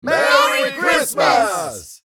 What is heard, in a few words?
Mixed crowd merry christmas